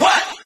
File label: what group of men; ooh ahh